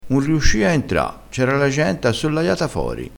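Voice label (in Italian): "assollaiato", vocabolario dialettale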